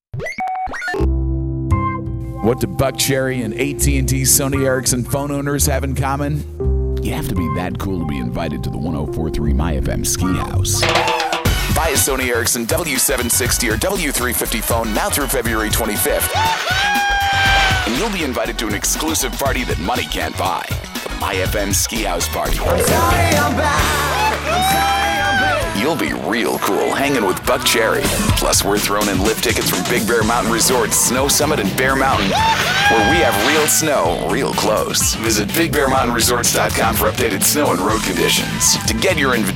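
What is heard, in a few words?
voice over hot ac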